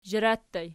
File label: "zhara", Archi